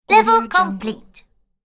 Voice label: cartoon girl says level complete

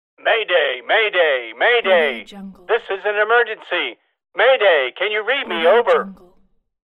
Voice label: mayday distress call; applehillstudios

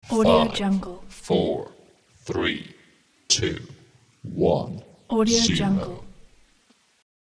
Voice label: countdown british male voice

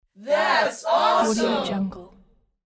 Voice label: teen small group thats awesome; applehillstudios

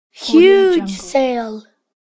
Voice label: boy says huge sale

